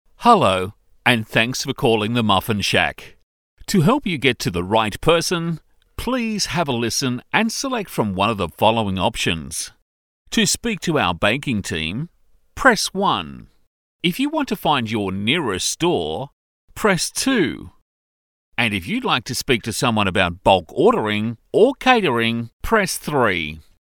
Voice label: AU PHONE